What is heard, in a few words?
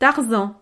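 "tarzan", French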